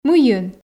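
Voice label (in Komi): "мыйын", Komi, female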